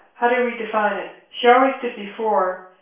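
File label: female reverberant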